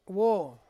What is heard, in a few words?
"wo", sun, Ansus